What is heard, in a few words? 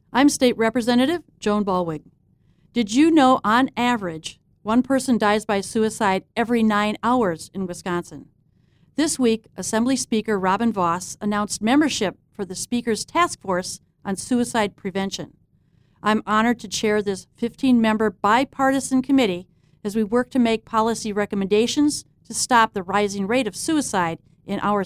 Radio Address; Ballweg